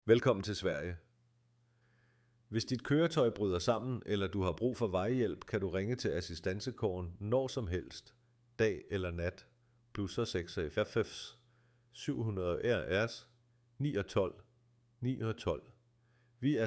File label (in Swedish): Ak dansk rost